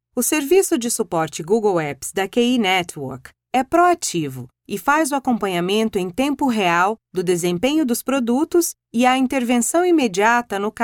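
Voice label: Brazilian Portuguese narration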